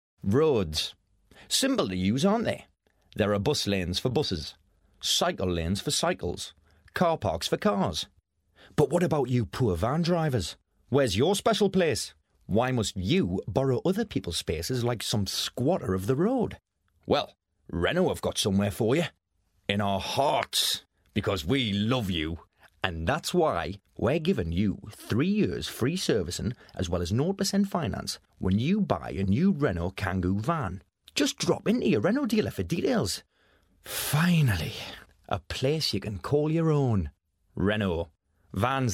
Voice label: male english geordie accent commercial; renault

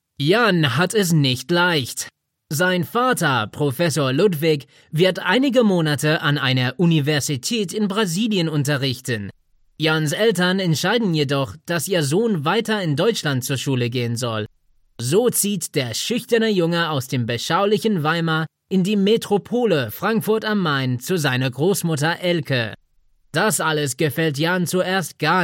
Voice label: male, German, narration

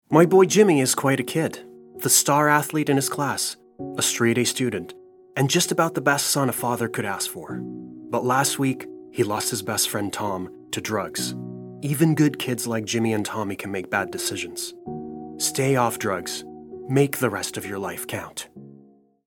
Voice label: male english canadian; canadian accent

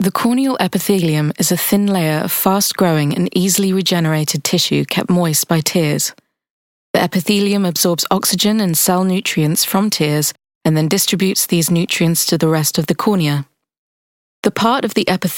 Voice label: female english medical corporate narration

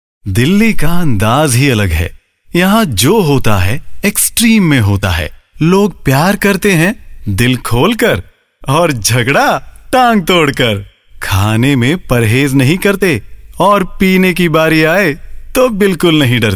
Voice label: male indian hindi motors commercial; tata

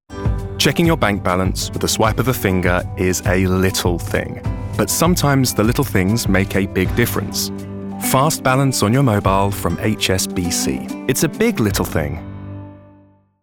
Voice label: male english commercial; hsbc; southern and northern england

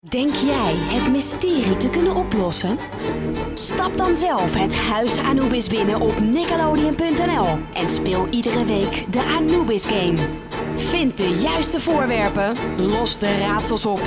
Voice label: female dutch webgame

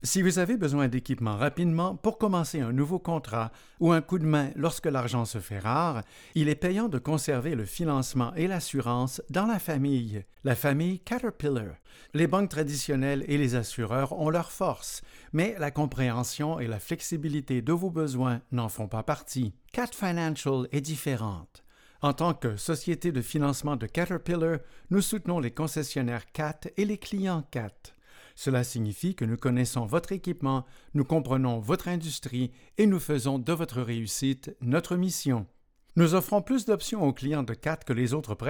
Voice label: male french canadian corporate narration

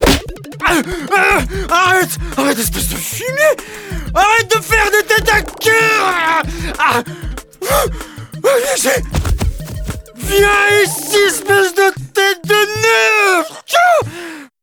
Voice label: male french animation; Devine Voices

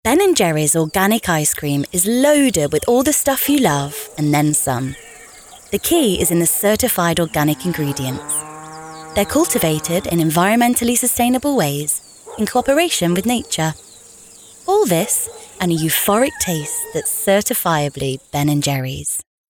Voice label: female English commercial; Ben and Jerry's; English northern accents

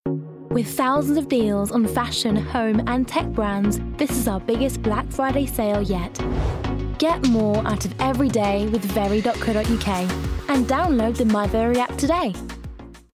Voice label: female English estuary accent very commercial; english and french; voices; devine voices

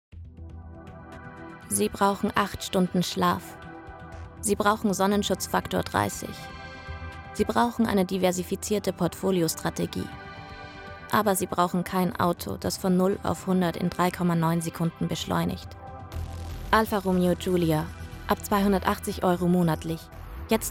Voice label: female austrian and german german commercial

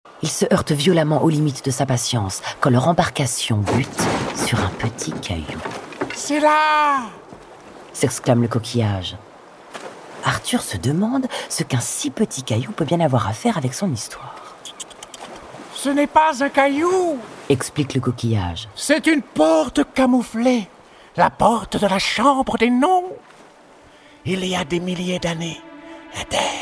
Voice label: male French hermit crab cartoon character; devine voices

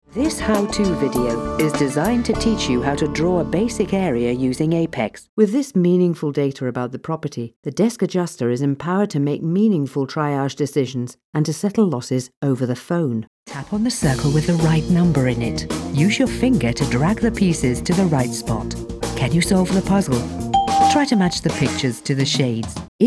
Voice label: female english e-learning compilation; devine voices

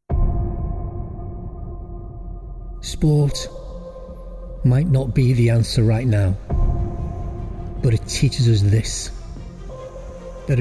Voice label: male welsh liverpudlian accent commercial; adidas; devine voices

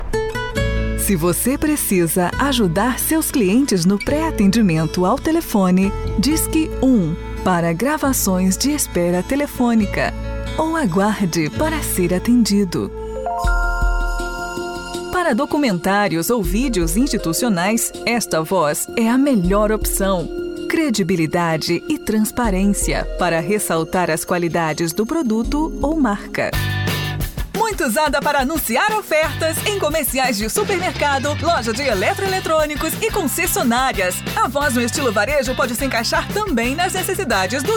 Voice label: female brazilian portuguese compilation

